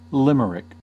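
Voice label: "limerick", American English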